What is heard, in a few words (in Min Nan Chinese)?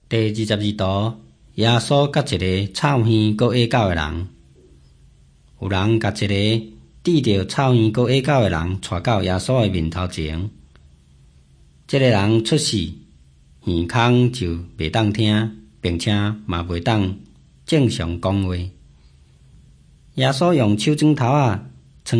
taiwanese, drama